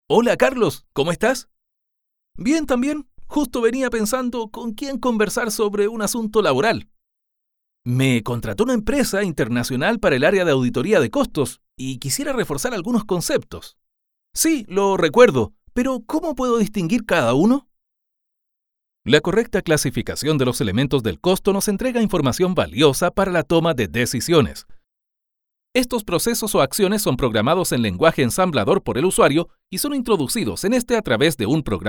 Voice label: elearning; aiep